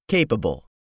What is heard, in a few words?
"capable", english; 3000 words